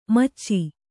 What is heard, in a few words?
"ಮೋಚ", Kannada